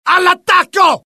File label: alert, dialogue IT; mohaa; attack